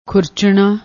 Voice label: "khurchana", Punjabi

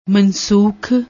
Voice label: "mansookh", Punjabi; ਮਨਸੂਖ

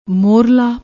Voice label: "moharlaa", Punjabi

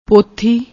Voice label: "pothi", Punjabi

